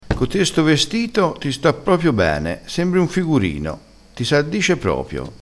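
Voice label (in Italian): "figurino", vocabolario dialettale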